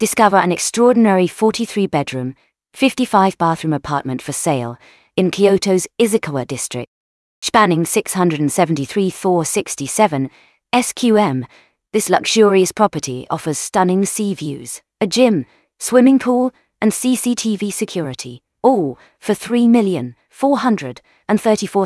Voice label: narration audio